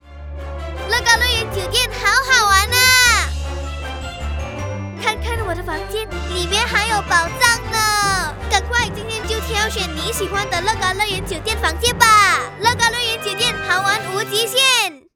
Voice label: Mandarin VO; Legoland Hotel

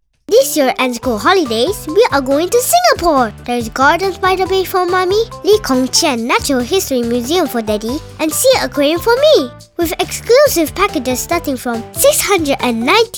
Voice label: Tourism ENG; Singapore

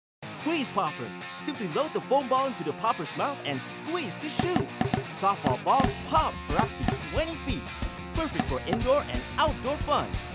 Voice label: English Commercials

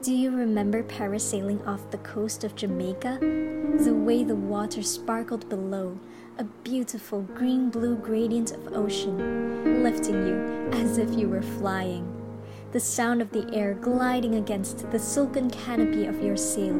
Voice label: Calm

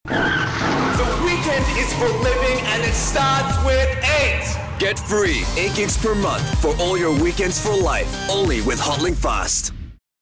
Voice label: Energectic Attitude; Free 8gig

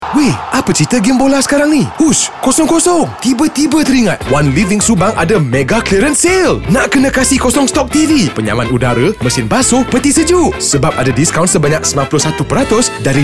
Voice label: Malay, Commercial Energetic, Friendly, Vibrant; BM : One Living Commercial Energetic, Friendly, Vibrant

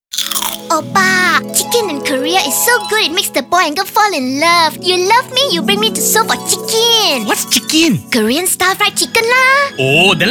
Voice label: Manglish; KRR Seoul Sedap Chikin

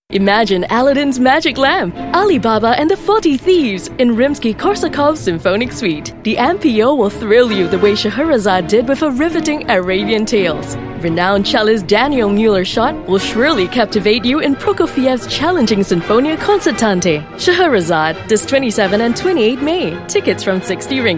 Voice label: ENG; SHEHERAZADE

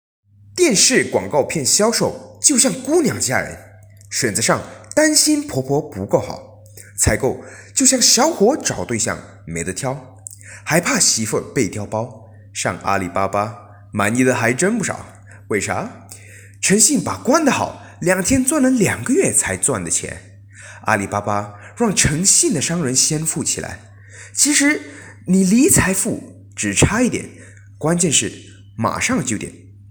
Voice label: mandarin

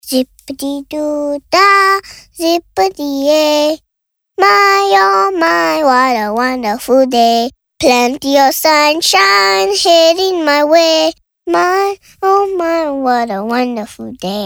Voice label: ENG

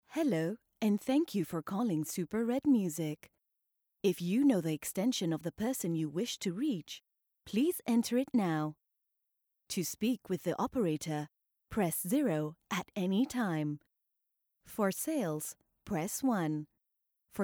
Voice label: IVR VO Read

